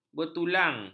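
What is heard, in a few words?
"bertulang", Malay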